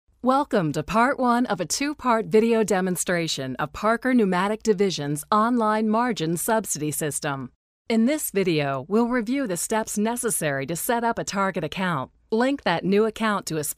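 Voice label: English US, female, e learning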